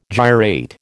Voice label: "gyrate", American